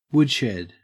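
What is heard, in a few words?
"woodshed", Australian English; pronunciations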